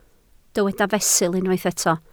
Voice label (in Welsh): "fesul", Welsh